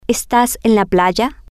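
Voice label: are You At The Beach, Spanish, female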